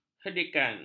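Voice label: "herdikan", Malay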